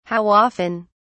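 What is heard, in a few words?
"how often", British English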